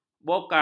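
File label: "bokong", Malay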